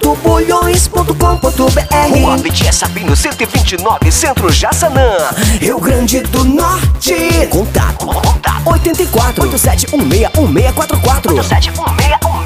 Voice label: VOZ IMPACTO GRAVE vinheta para conselheiro tutelar vinheta para conselheira tutelar

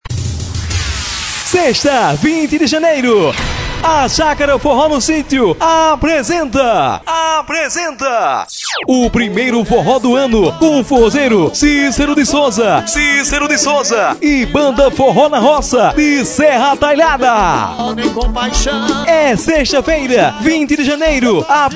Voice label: locutor chamada festa

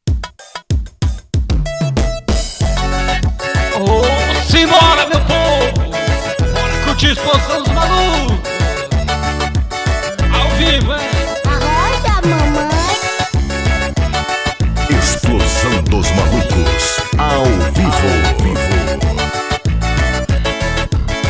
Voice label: locutor banco de vozes ingles vinhetas; Explosao dos malukos; fone oizap

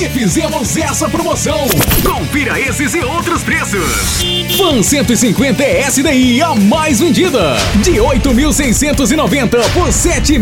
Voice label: mega promocao motos honda voz; riuna

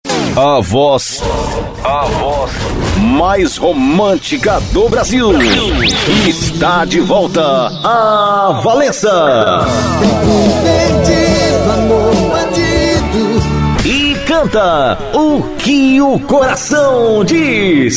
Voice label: spot

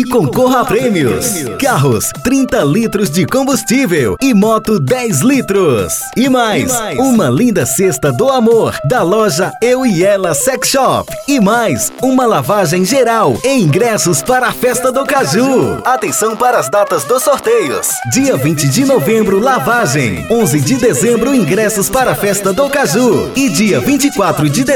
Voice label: mensagem de natal e fim de ano locutor publicitario vinheta banco de voz; off jingle

